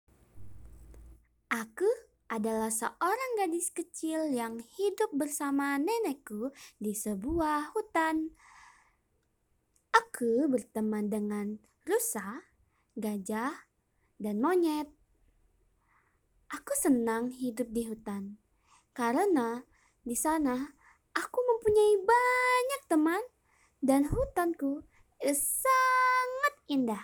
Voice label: INDO KIDS

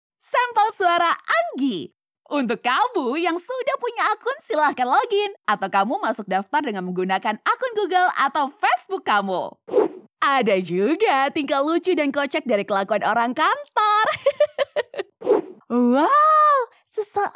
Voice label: cheerfull

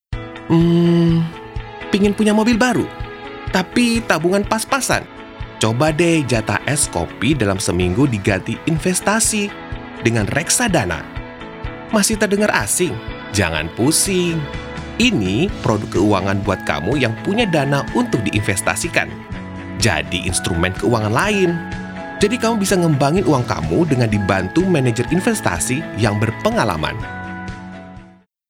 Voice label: INDONESIA CASUAL

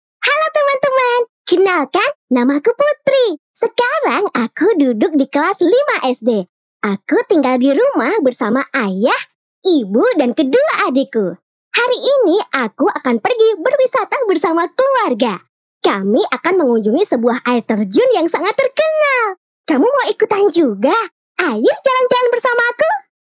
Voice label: CHARACTER; INDONESIA

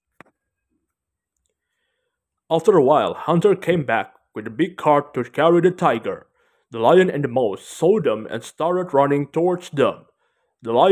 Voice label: Karakter English

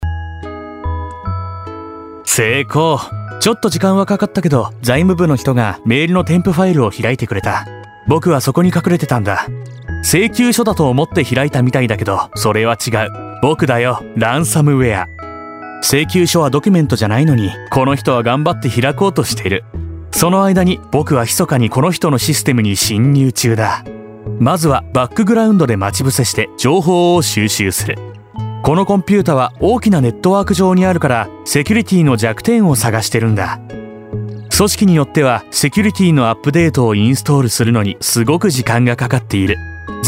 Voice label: JAPANESE CHARACTER

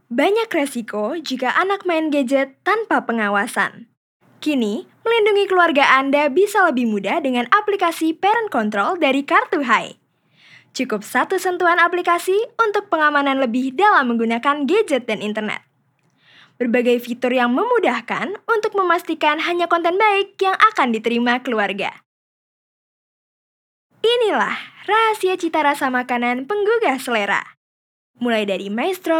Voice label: CASUAL INDO

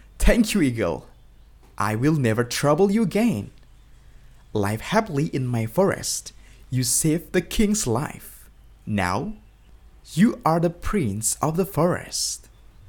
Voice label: character English

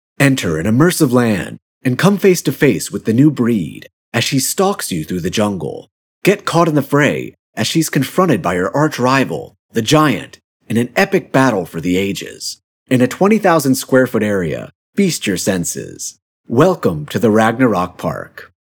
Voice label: ENGLISH CASUAL, voice sounds serious and powerful

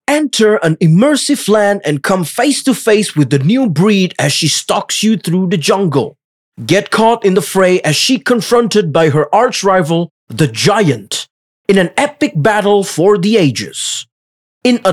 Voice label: Casual English

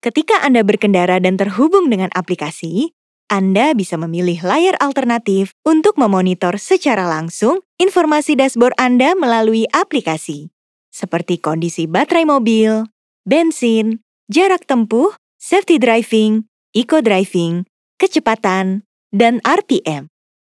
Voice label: INDONESIA CASUAL

